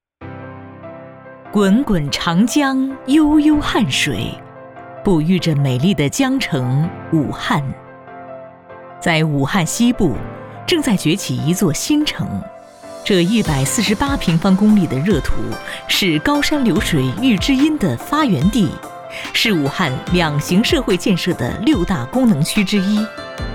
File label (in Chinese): 女 专题 城市宣传